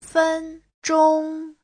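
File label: "fēnzhōng", Mandarin Chinese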